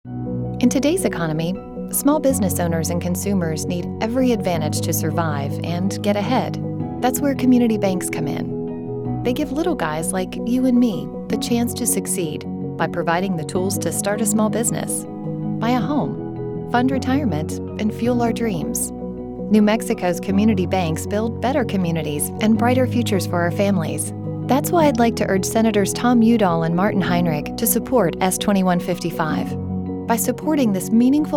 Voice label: radio ad; icba; new mexico